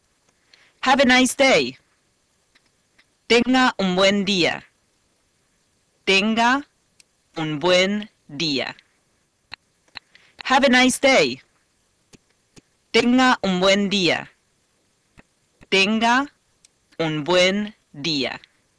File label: Spanish Have A Nice Day